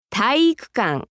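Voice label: "taiikukan", Japanese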